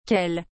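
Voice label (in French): "quel", French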